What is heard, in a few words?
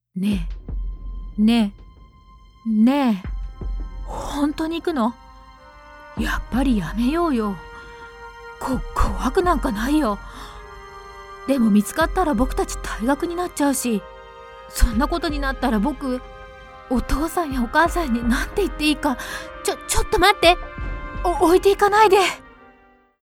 japanese movie trailers